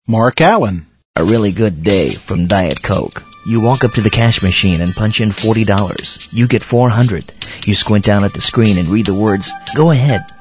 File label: male; Spotland Productions